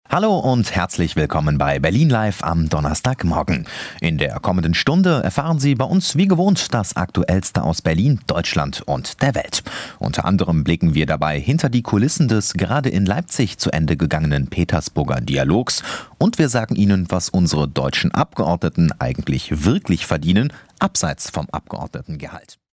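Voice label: German, male, fast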